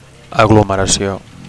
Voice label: "aglomeracio", Catalan; (f) agglomeration; (f) crowd; mass; cluster

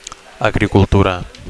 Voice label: "agricultura", Catalan; agriculture; farming

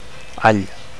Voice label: "all", Catalan

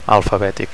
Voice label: "alfabetic", Catalan; alphabetical

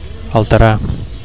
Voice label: "alterar", Catalan; to alter

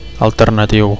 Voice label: "alternatiu", Catalan

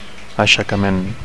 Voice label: "aixecament", Catalan; (m) lifting; raising; (m) uprising

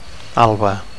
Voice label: "alba", Catalan; 1. (f) dawn

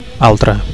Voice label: "altre", Catalan

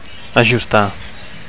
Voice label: "ajustar", Catalan; to adjust

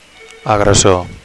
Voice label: "agressor", Catalan; attacker

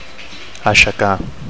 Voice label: "aixecar", Catalan

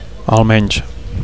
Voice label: "almenys", Catalan; at least

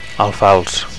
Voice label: "alfals", Catalan; (m) alfalfa

